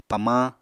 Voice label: "pamañ", Apatani; Material Culture